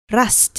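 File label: "rust", English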